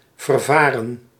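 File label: "vervaren", Dutch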